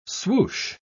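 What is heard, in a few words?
"swoosh", spoken word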